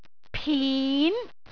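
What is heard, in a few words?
"pin2", Cantonese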